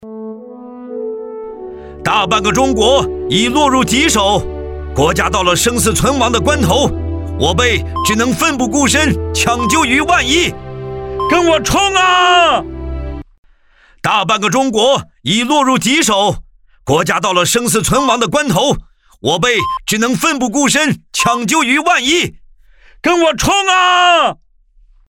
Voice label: 男, 角色, 红军; 中国陷落

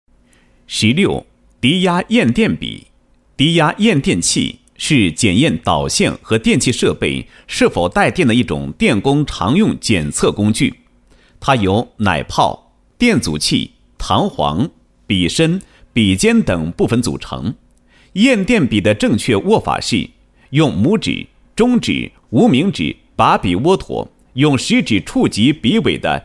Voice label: 男 解说 低压验电笔解说配音

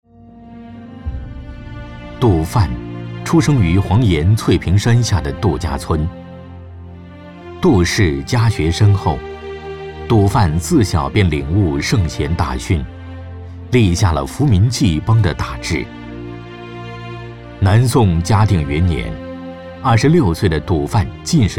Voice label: 男 人物纪录片

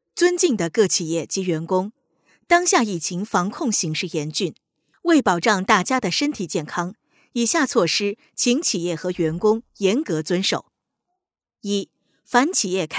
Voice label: 疫情期间广播; 瑞华新园; 十条

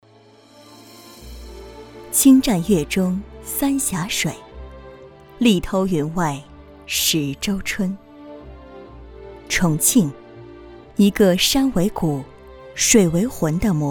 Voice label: 女 宣传片类; 梁滩河

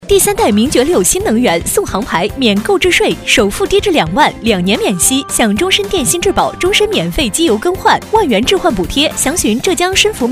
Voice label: 女 广告 汽车

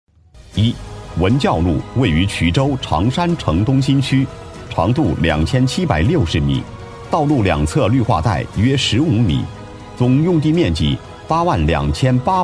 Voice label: 男 工程简介 多媒体; 常山国际慢城一期文教路

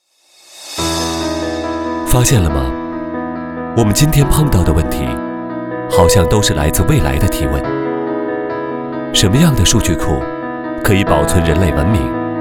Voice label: 男 独白 蚂蚁

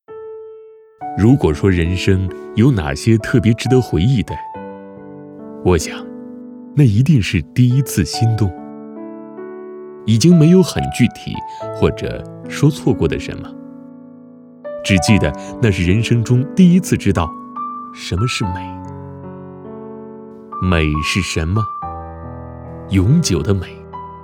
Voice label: 男 微解说 散文式旁白

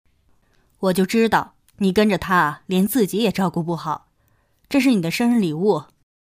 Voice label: 母亲角色

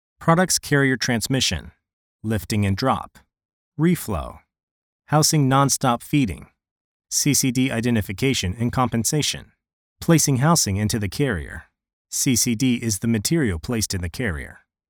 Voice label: 生产线视频解说词

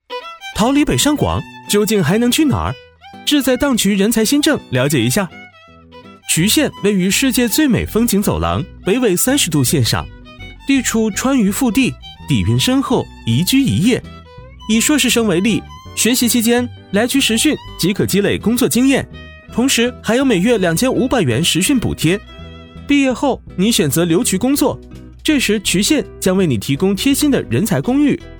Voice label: 男 MG; 智在渠县

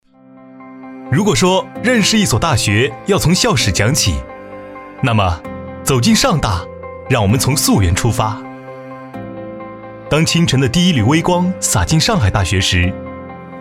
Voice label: 男 学校宣传; 上海大学

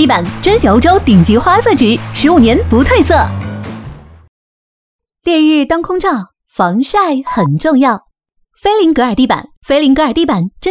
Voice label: 女 广告 语气欢快 地板; 菲林格尔地板

